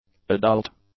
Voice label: "adult", English; adjectives